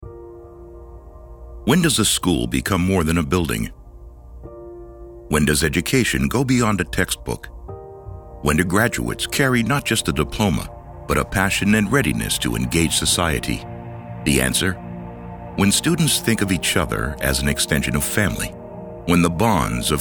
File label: voiceovers; Greenwood School